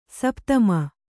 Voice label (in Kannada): "ಸಪುತಮಿ", Kannada